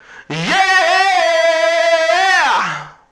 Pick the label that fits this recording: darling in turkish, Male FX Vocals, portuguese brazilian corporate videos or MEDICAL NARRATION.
Male FX Vocals